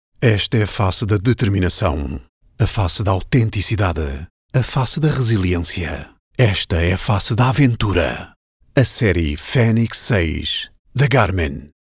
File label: portuguese promos